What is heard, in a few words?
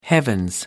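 "heavens", British English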